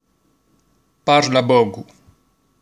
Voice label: Parla pocu, corse

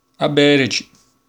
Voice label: Avvedeci, corse

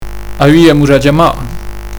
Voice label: aviamu da chjama, corse

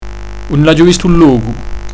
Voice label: un l aghju vistu in locu, corse

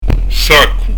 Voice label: "saccu", Corse; s'aku; Son "appuyé"

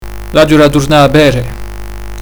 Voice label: l aghju da turna a vede, corse

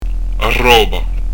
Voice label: "a robba", Corse

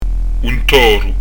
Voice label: "un toru", Corse

